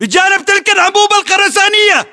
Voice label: landmark near concrete pipe, english battlechatter